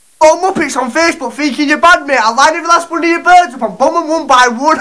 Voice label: british